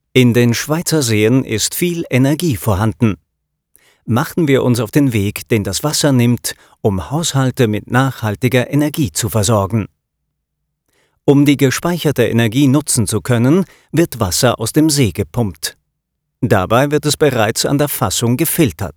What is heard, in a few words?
Voiceover; Energie 360 Grad